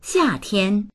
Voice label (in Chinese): "xia4tian1", voices